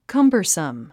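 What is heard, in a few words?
"cumbersome", English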